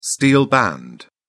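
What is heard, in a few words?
"steel band", British English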